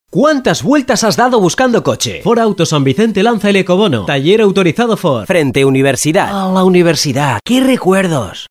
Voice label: locutor spain; spanish voices over spain

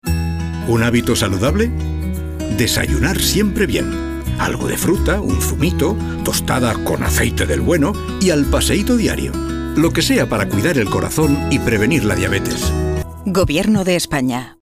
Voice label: locutor; spanish voices over spain; actor de doblaje de España

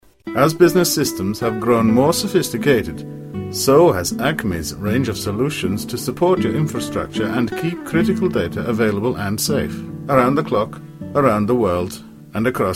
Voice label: locutor ingles inglaterra

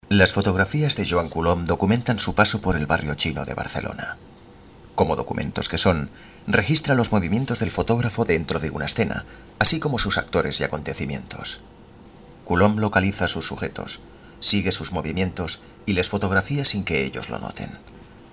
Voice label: locutor spain

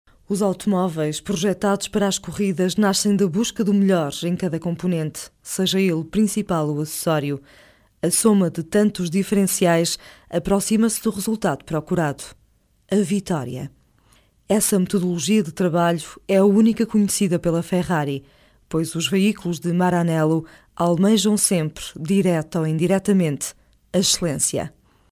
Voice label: locutora portuguesa; Spot portoguese

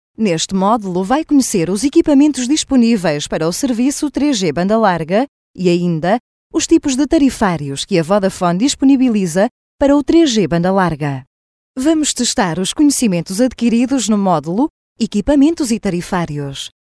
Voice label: locutora portuguesa; Speakers portoghesi

